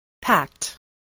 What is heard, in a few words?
"pact", English voice